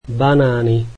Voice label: slovak bananas

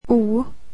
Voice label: "o", swedish alphabet

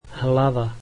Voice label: slovak head

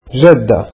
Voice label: arabic grandmother

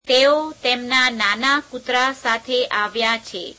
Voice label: gujarati he came with his small dog; [preposition + pronoun]; તેઓ તેમના નાના કૂતરા સાથે આવ્યાં; [teeo teemeneā neāneā keūtereā seāthe āve'yeām]

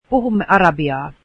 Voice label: we speak Arabic, finnish

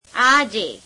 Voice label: gujarati today; Adverbs; આજે; [āje]

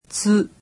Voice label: japanese alphabet "tsu"

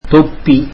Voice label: tamil hat

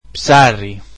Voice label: greek fish

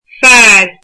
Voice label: "man", Irish

